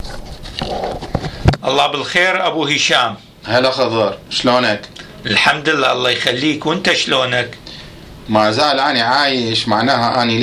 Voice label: Dialogue about the Iraqi peoples condition Today, Iraqi Dialect